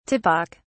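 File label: "typic", British English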